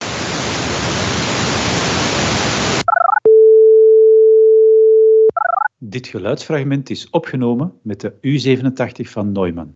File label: Speech no suppression